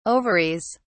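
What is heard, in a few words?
"ovaries", British English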